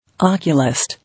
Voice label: "Oculist", English